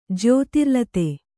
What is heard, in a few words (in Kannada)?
"ಜ್ಯೋತಿರ್ಲತೆ", Kannada